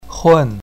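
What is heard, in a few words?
"hun4", Mandarin Chinese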